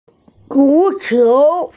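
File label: "zu qiu" (football), Chinese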